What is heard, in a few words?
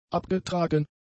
"abgetragen", German; adjectives